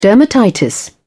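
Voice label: "dermatitis", British English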